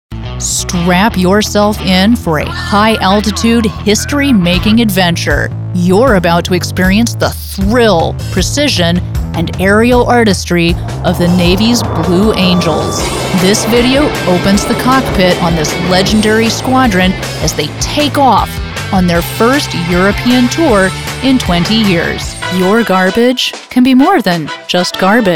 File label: narration